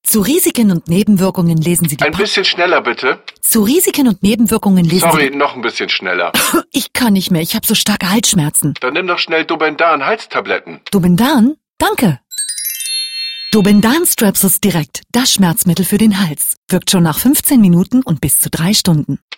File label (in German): werbung; Dobendan Disclaimer